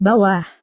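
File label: "bawah", Indonesian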